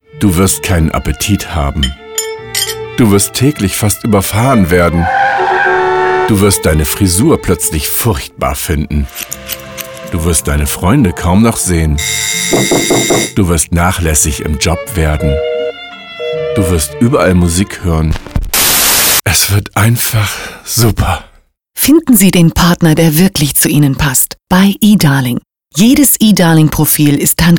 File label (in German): werbung; E-Darling